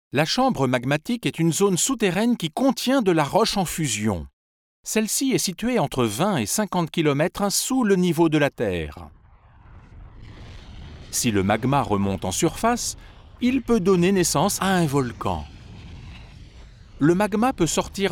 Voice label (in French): voix off docu; volcans